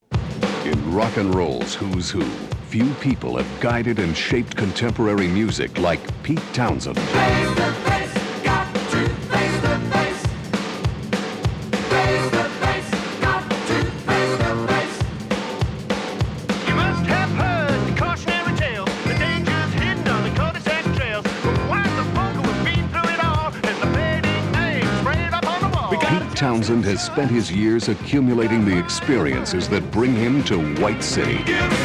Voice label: Radio Commercials LP